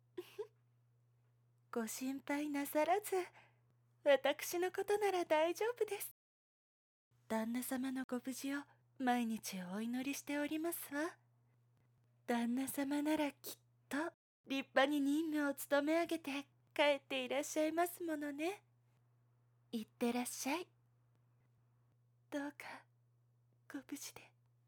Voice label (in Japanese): 主人を見送るメイドさん